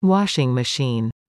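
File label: "Washing machine", English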